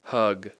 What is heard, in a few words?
"bug", English